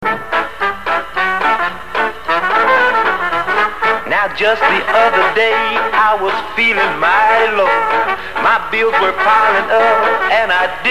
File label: loan commercial; WWAB